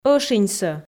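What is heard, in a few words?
"ӧшиньсӧ", Komi, female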